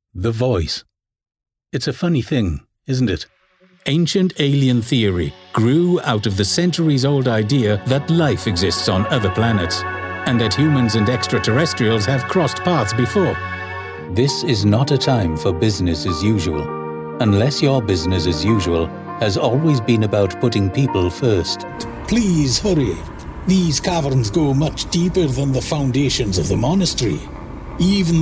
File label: english british commercial